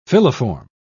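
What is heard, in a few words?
"filiform", English, British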